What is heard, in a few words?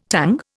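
"tonguing", Indian; Dictionary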